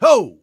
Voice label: hurt; 巴斯光年